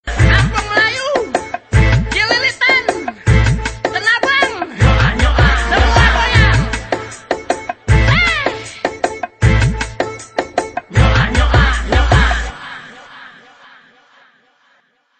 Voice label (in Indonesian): sopir mikrolet lucu